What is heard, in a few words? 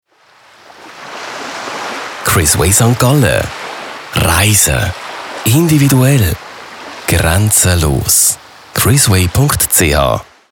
Radio Spot Travel Ocean; Chrisway Travel